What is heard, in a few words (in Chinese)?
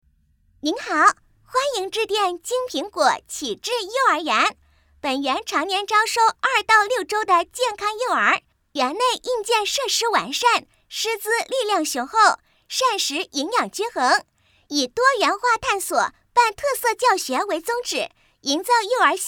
女 童声彩铃